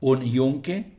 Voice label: un yunque, es; un yunque [unˈʝuŋke]